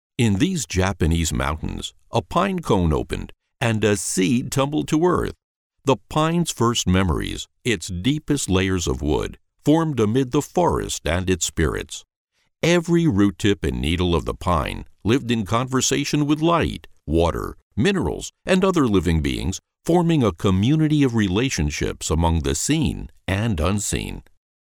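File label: English USA male, english american voice over talent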